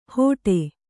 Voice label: "ಹೊಡೆ", Kannada